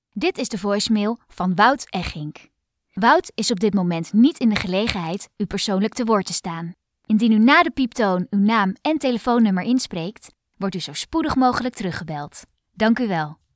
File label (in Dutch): zakelijk